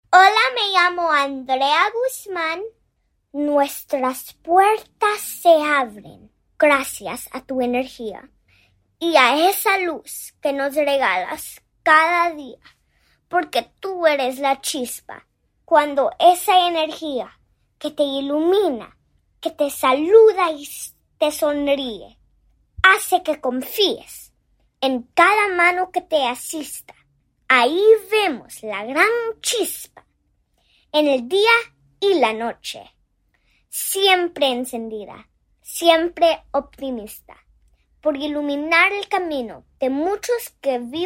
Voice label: Spanish